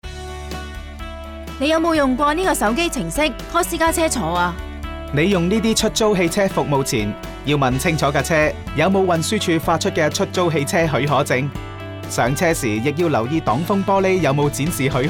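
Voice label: hire car passenger radio api, chinese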